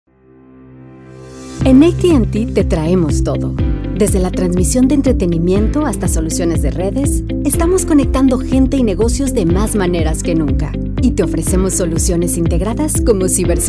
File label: Industrial Spanish